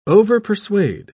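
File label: "overpersuade", British English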